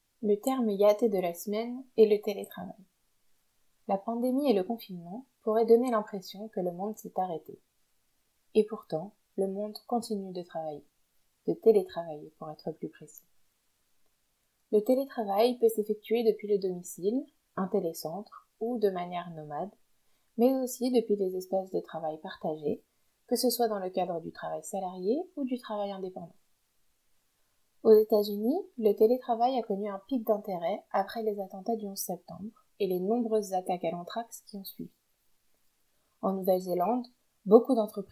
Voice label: TELEWORKING FR; reduced noise